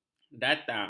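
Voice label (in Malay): "datang", Malay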